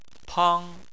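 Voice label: "pang" first tone, Chinese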